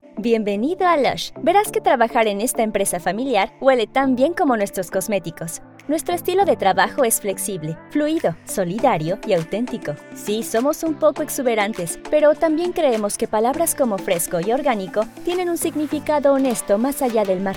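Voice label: female, Mexican, neutral Spanish Latin American corporate; lush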